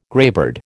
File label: "greybeard", American